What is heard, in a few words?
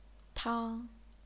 "tang1", chinese pinyin